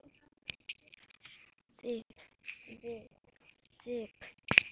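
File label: "zip", spoken word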